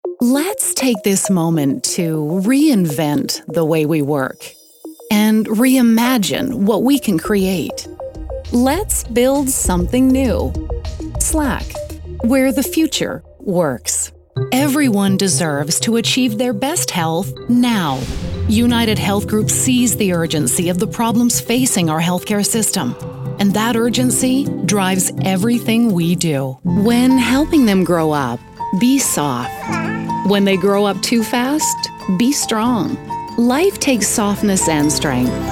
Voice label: commercial